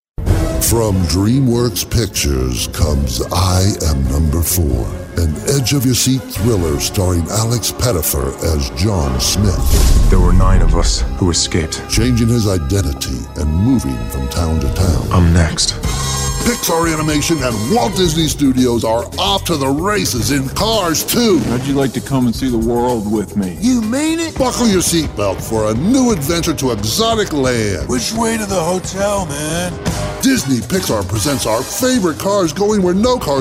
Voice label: English - Southern American